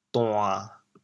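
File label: "duan1", Teochew, chaoyang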